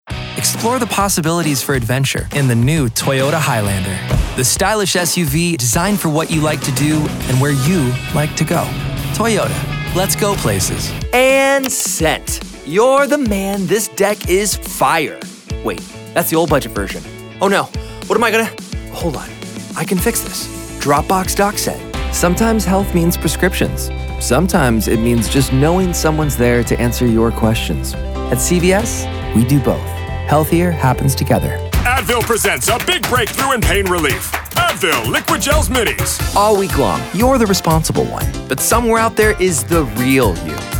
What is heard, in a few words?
Commercial